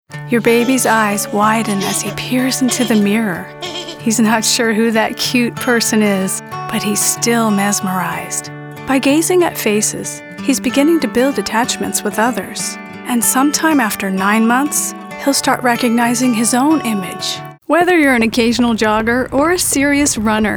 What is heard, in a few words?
Narration